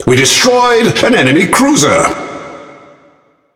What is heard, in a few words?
Speech Destroy Enemy Cruiser, EN GB; World Of Warships